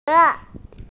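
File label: "xie2", Mandarin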